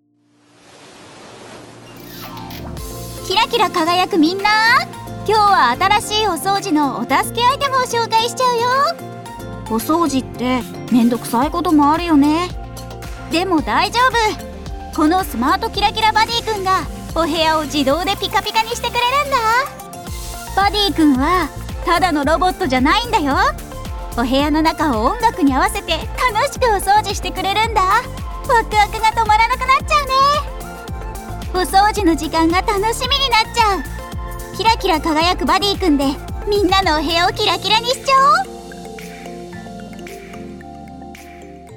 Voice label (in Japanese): キャラナレ 女の子 男の子 マスコット